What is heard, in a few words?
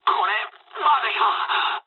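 aircraft destroyed mood med, speech japanese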